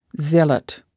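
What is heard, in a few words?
"zealot", English word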